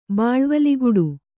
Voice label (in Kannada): "ಬಾಳ್ವಲಿಗುಡು", Kannada